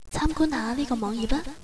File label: URL, Cantonese girl; ICQ